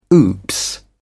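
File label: "oops", British English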